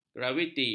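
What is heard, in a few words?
"graviti", Malay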